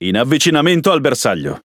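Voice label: Italian speech Pilots Bomber Engage; World Of Warships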